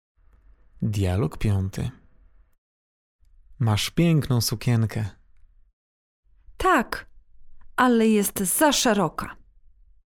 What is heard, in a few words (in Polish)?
dialog